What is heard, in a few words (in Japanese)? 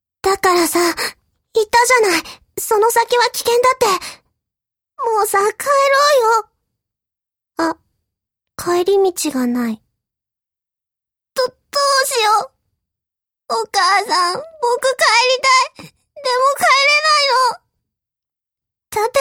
f yowakina otokonoko; 弱気な男の子